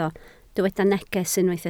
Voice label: "neges", Welsh

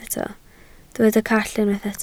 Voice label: "call", Welsh

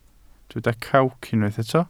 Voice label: "crawc", Welsh

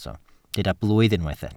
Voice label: "blwydd", welsh

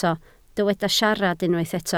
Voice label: "siarad", Welsh

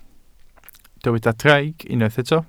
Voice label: "draig", Welsh

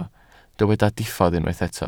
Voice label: "diffodd", Welsh

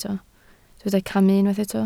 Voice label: "camu", Welsh

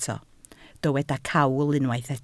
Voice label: "cawl", Welsh

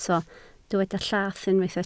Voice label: "llath", Welsh

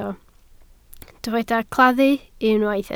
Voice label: "claddu", Welsh

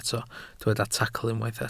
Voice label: "tacl", Welsh

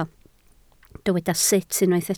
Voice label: "sut", Welsh

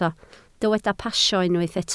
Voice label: "pasio", Welsh

